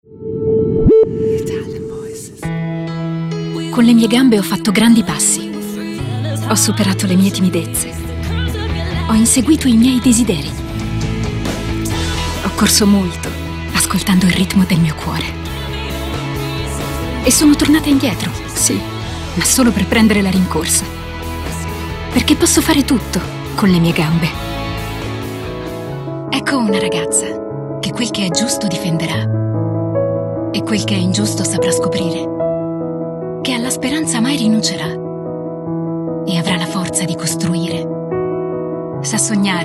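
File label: Italian voices, Intimate Natural, female voices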